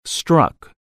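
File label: "struck", English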